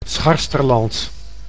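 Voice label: "Scharsterland", Dutch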